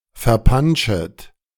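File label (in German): "verpanschet", German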